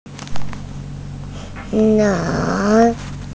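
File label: "lang2", Mandarin Chinese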